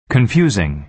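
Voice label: "confusing", American English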